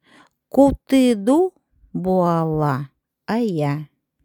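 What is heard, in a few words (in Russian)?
УДЭГЕЙСКИЙ Тигру в тайге хорошо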